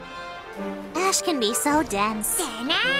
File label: english dub